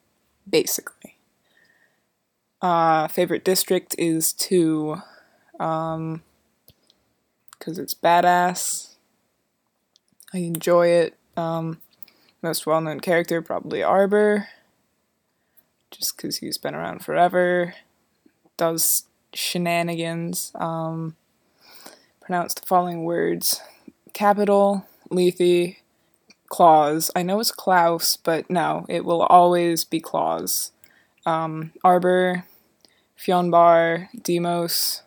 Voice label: accent challenge